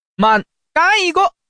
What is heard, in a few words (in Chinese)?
gang, changsha man; mahjong paohuzi